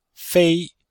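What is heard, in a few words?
"fei1", Chinese; Cours-de-Chinois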